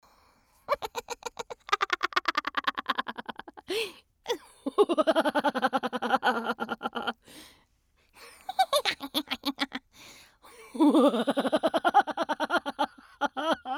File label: clown